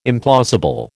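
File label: "implausible", American English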